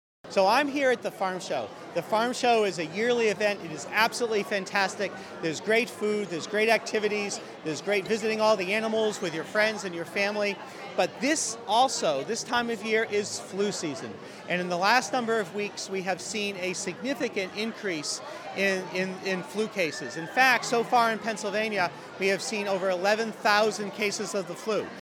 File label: Farmshow Flu Shot radio; DOH